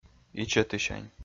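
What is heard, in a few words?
"ичӧтысянь", Komi, male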